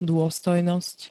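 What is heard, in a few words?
"dôstojnosť", Slovak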